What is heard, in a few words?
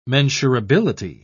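"mensurability", British English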